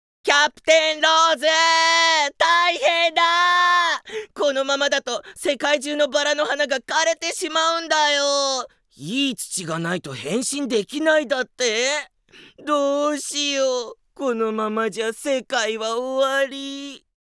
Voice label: Dialog